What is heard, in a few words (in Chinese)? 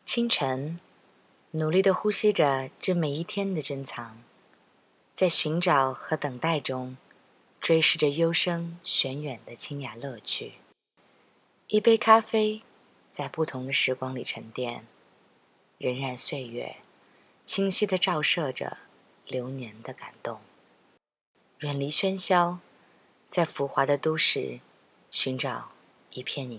英女 旁白; 远离喧嚣